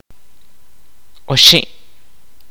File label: "three", kaqchikel